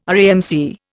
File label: "RAMC", Indian